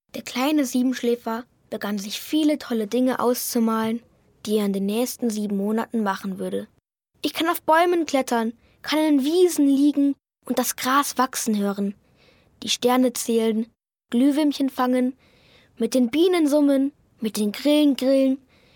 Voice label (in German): Jungen; Der Siebenschläfer